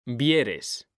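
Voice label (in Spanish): "vieres", Spanish, male; mekanta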